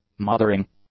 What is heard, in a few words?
"mothering", English; adjectives